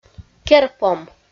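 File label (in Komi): "керпом", Komi, female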